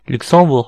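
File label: "luxembourg", Breton; gcompris; geography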